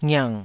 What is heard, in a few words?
"ngiang3", Taiwanese